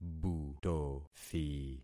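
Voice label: "butothi", concatenated syllables; Affixing experiment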